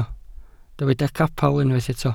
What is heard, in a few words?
"capel", Welsh